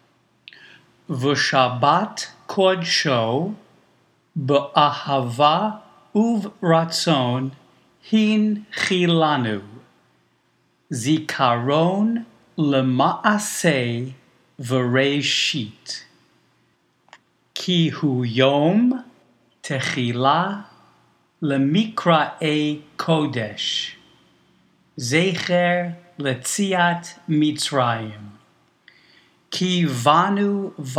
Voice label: Kiddush read